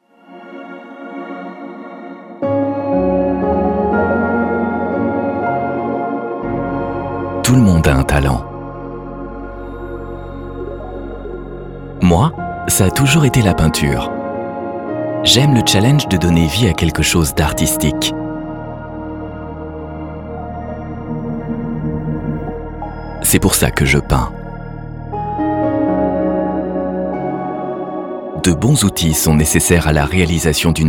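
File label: fr narration, French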